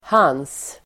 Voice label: "hans", Swedish